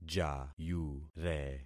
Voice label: "jayure", concat syllables; Affixing experiment